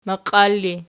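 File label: "maqiar" ergative, Archi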